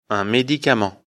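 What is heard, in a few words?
"medicament", French; afrikalan; lecture fr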